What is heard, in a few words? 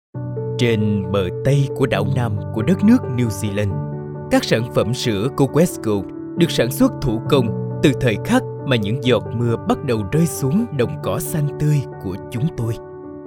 vietnamese narration